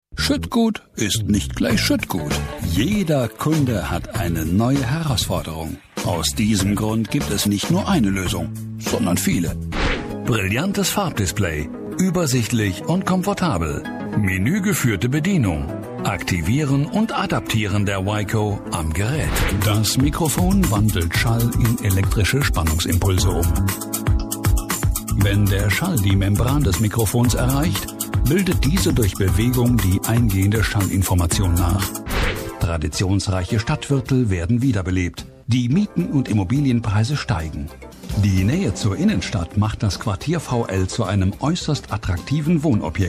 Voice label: Unternehmens Imagefilme Collage

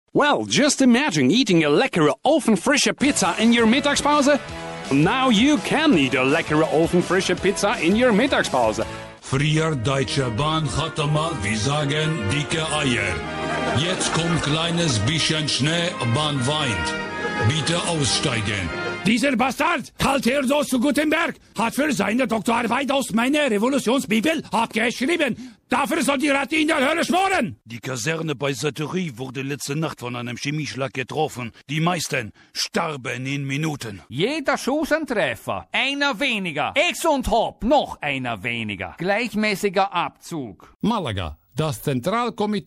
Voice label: Auslaendische Akzente Collage

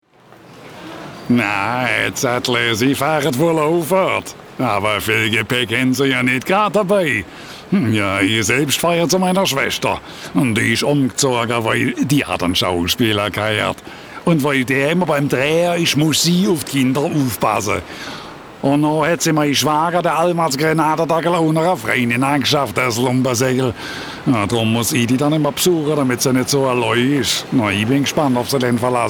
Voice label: Deutsche Dialekte Schwabe

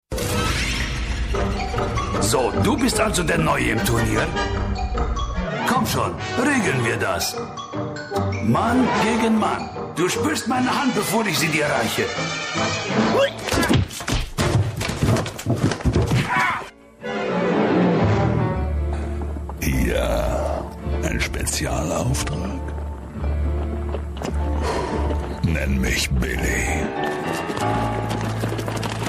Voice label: Trickstimmen Collage

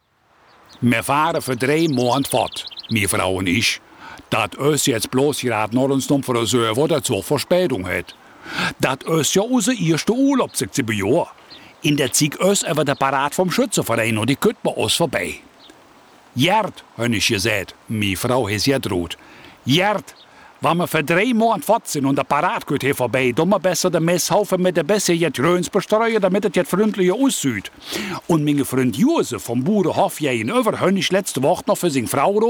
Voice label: Deutsche Dialekte Vorgebirge Voreifel

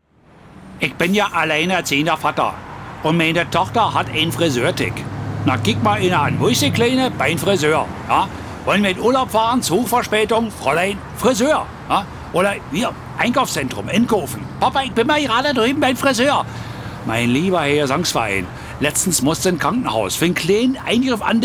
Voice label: Deutsche Dialekte Berliner

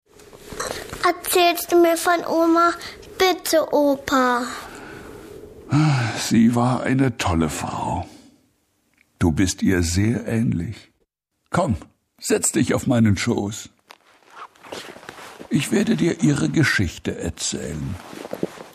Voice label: Opa mit Enkelin Dialog

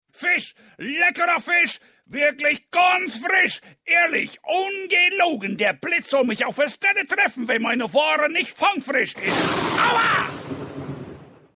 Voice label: Marktschreier

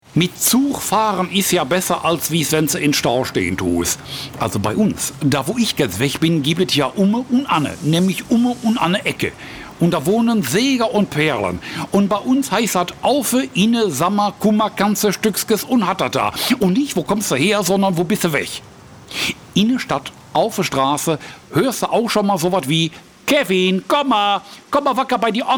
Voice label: Deutsche Dialekte Ruhrpott

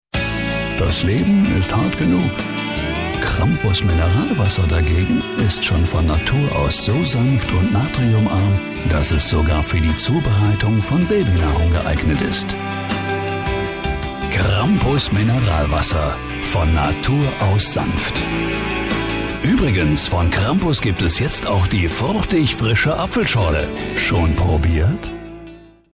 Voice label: Werbung Mineralwasser; Krampus

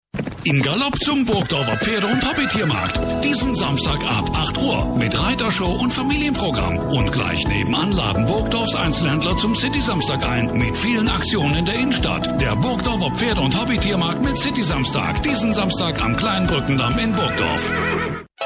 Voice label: Radiospots Funkspots Collage

